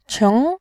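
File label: "qing", Mandarin Chinese, second tone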